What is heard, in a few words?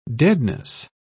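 "deadness", British English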